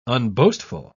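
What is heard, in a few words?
"unboastful", British English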